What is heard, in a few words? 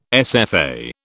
"SFA", British